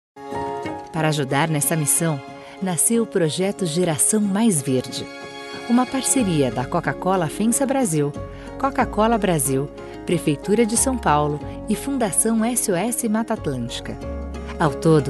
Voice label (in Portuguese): intitucional